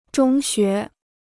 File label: "中学", Chinese